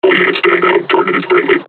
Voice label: taunt, combine charger; Half Life Alyx

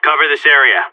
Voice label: radio bot hold, seal, player vo; Counter Strike; CS:GO

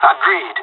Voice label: agree, pirate; Counter Strike Model Pack; CS:GO

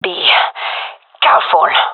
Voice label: taking fire friendly, fem; vo

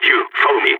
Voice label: radio follow me, balkan; vo

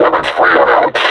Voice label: announce attack, combine suppressor

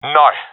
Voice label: round losing, professional; vo; Counter Strike Model Pack; CS:GO

